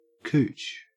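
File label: "cooch", Australian English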